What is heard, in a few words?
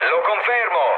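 Italian player killed enemy mood low, speech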